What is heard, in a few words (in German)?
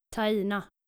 "taina", skandinavische maedchennamen